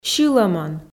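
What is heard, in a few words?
"сьылӧмӧн", Komi, female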